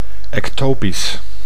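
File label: "ectopisch", Dutch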